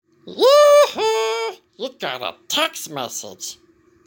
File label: yoohoo, ringtones; stitch; Disney